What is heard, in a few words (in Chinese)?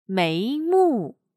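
"眉目", Chinese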